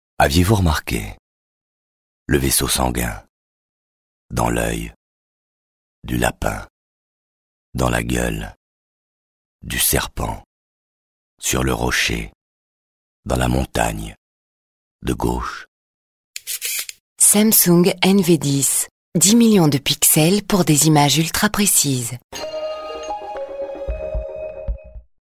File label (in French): radio pub spot